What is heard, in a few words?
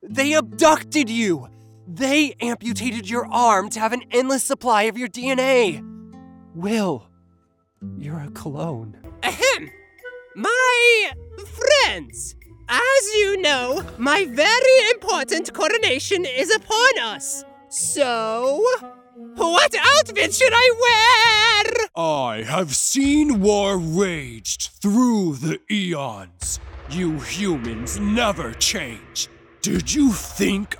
animation